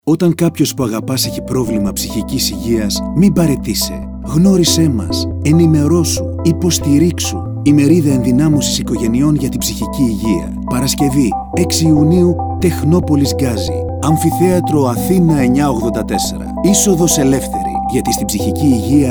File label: radio spot